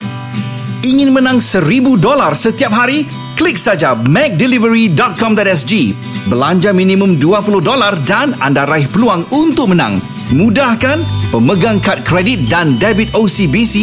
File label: Malay Male Click and Win; McDonalds